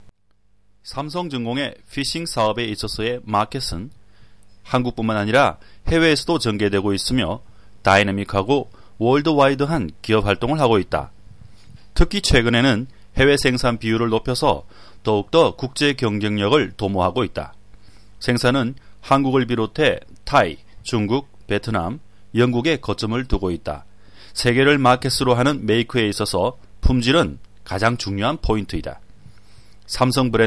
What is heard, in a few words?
kor; MediaVoix; vice sample